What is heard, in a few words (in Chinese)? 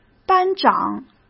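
"班长", Mandarin Chinese; chinese-flashcards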